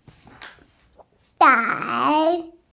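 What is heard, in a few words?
"dei3", Mandarin Chinese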